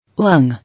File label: "Lung", English